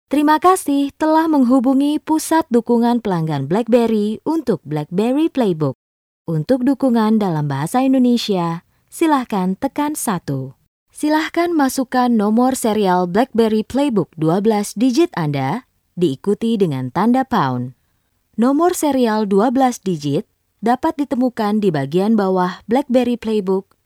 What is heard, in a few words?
indonesian ivr